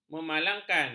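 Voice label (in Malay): "memalangkan", Malay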